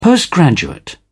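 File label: "postgraduate", British English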